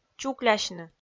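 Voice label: "чуклясьны", Komi, female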